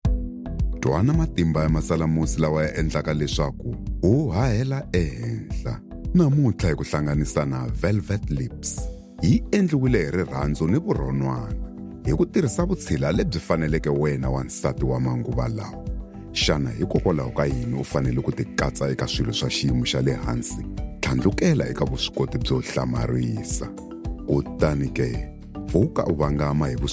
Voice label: Xitsonga Soft Sell